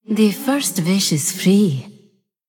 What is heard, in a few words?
Female Djinn Play